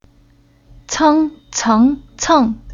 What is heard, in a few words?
"Ceng", Chinese